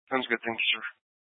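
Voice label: Troop 2A Dispatch, scanner audio recording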